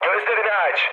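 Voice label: Turkish player friendly fire, mood med, speech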